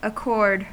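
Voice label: "accord", American English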